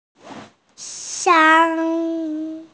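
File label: "xiang1", Mandarin Chinese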